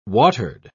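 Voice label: "watered", British English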